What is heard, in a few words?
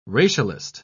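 "racialist", British English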